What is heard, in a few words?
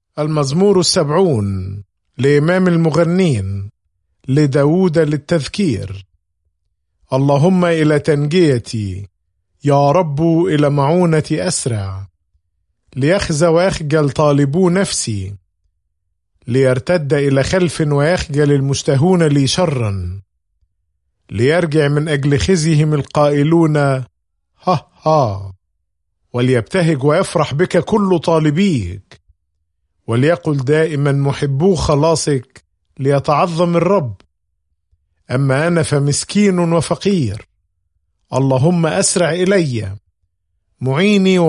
Psalm, arabic bible; psalms